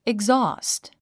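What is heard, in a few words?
"exhaust", English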